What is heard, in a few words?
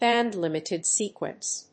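"band-limited sequence", English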